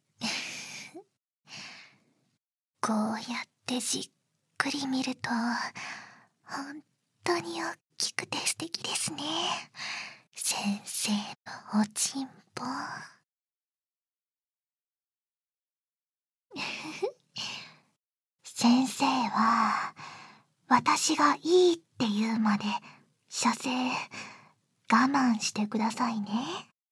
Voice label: パイズリフェラで寸止め早漏治療（バイノーラルマイク収録 効果音あり大きめ）

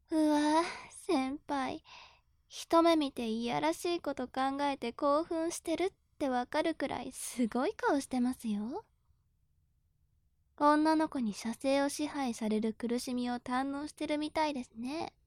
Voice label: 事前言葉責め

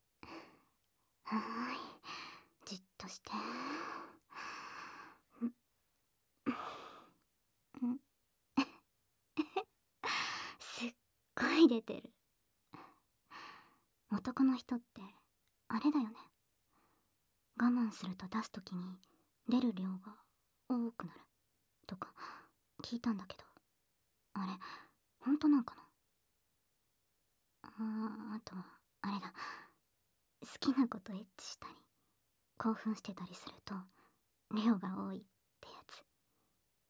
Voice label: 亀頭攻め, ASMR

